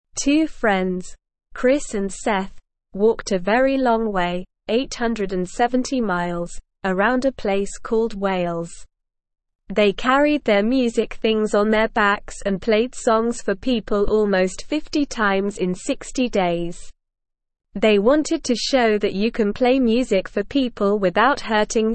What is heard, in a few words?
English Beginner SLOW Reading; Two Friends Walk 870 Miles Playing Music